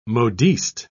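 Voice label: "modiste", British English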